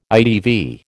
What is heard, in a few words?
"ITV", American